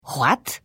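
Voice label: Hello, Khajiit female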